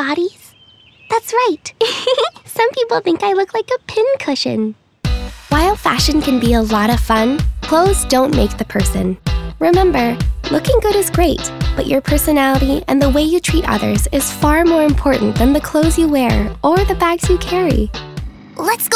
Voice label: kids narration